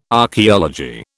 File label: "archeology", British